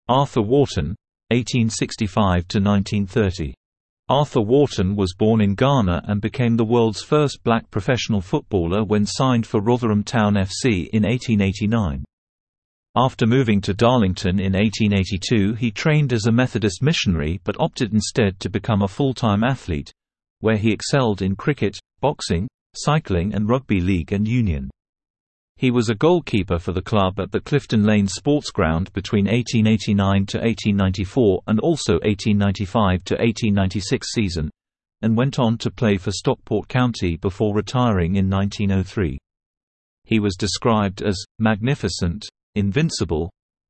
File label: Male